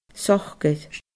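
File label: "socair", Scottish Gaelic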